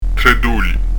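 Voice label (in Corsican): "doli", Corsican; trè d'oli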